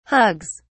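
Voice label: "hugs", English, uk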